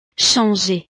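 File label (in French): "changer", French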